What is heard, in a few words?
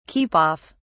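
"keep off", British English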